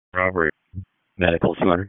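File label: Public Safety Tactical, p25; wake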